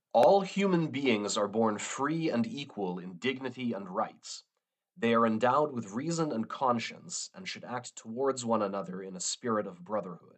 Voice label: udhr english; speech to speech translation

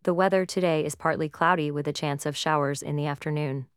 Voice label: Female Neutral; TTS Overview

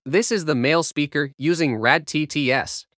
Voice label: Male, RadTTS; TTS Overview

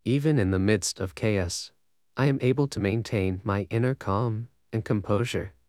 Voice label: Male Calm; TTS Overview

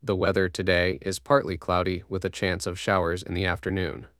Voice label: Male Neutral; TTS Overview

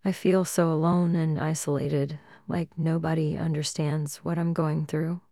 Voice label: Female Sad; TTS Overview

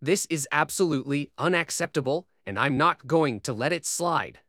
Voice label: Male Angry; TTS Overview

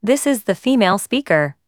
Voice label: Female

